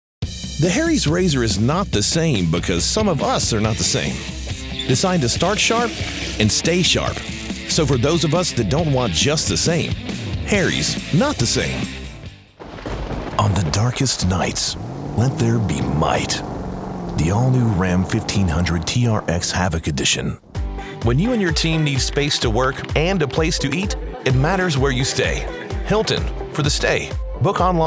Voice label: Commercial; Westview Audio Productions; White Bluff, Ten